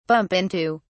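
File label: "bump into", British English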